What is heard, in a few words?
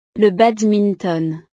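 "le badminton", French; deportes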